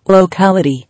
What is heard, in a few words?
"Locality", English